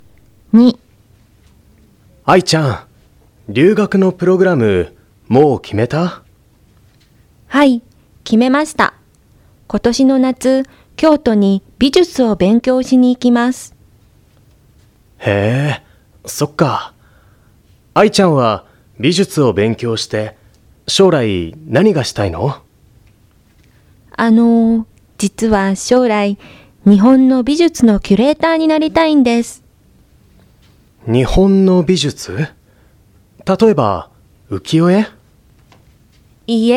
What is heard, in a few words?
Conversation